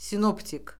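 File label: "sinoptik", Azerbaijani; Orfoqrafiya Lüğəti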